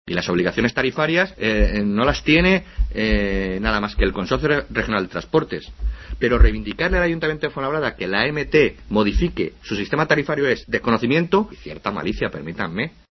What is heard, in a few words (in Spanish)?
noticias